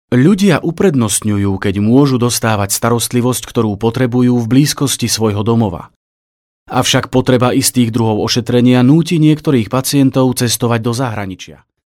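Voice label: Slovak, male, e-learning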